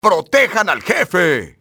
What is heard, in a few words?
protect boss, spanish soldier; XBOX